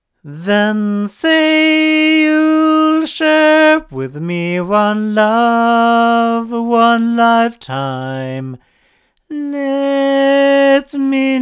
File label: C5000 male; JJLabs